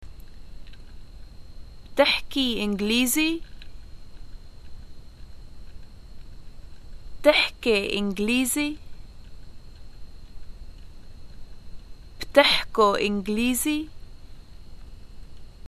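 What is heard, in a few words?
introductions do you speak English, Lebanese arabic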